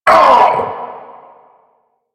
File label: Vocal Pain